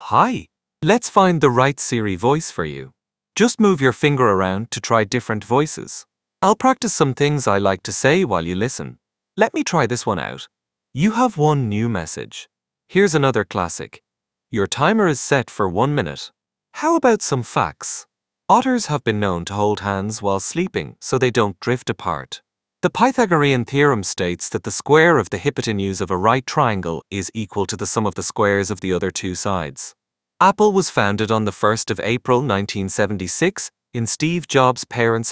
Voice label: en-IE; iOS 26